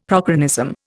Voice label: "prochronism", Indian; Dictionary